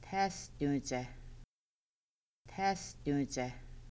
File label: yellow legs sandpiper, Kaska